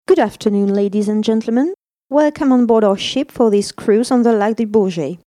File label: UK ACCUEIL, audio guide